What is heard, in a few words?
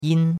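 "yin", Chinese, first tone; Cours-de-Chinois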